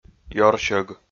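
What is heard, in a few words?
"ёрсьӧг", Komi, male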